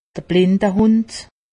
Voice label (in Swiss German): "blindehund", alsace; medecine